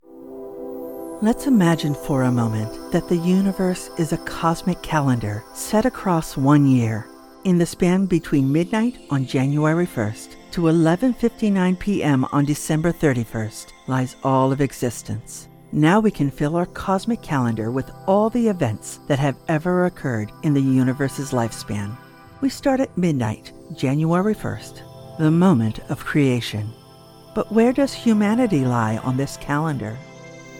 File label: Documentary Narration; Universe